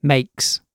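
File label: "makes", British English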